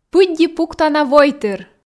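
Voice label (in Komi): пыдди пуктана войтыр, Komi, female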